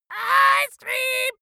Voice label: dwarf scream ice cream english

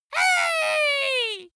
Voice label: dwarf insulted "hey"

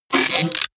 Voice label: robot voice short click

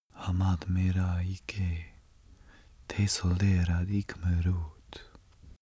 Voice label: male pray

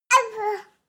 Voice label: baby talk

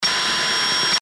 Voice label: cockpit radio communication

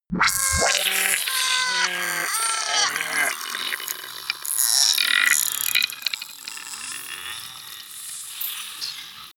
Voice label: crazy talk

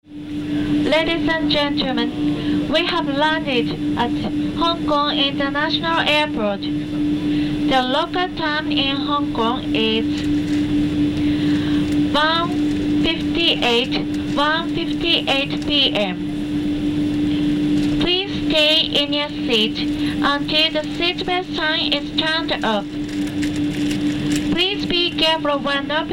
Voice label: airplane english

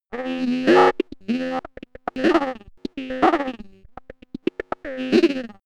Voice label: robot talking droid

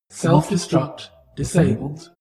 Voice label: space shuttle female status report

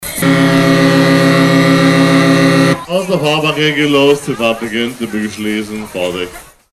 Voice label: octoberfest animateur

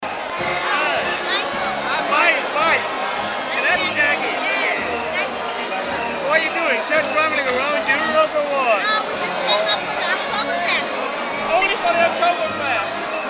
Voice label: smalltalk in usa

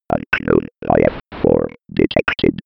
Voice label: old 90s computer voice

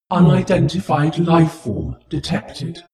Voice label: spaceship female status report

